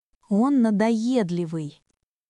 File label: Russian, russian phrases insults; ロシア語のネガティブなフレーズ